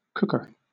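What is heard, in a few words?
"cooker", British English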